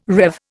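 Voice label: "rev", Indian English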